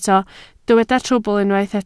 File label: "trwbl", Welsh